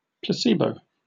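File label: "placebo", British English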